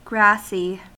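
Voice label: "grassy", US English; pronunciations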